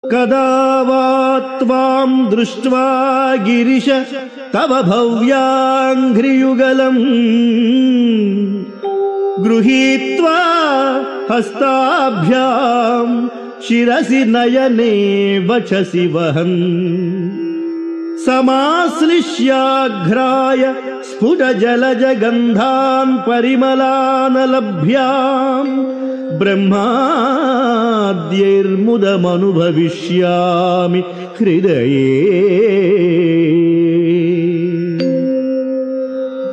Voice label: Sanskrit; Sri Adi Sankaracharyas Sivananda Lahari; Adi Shankaracharya; श्रीशिवानन्दलहरी २६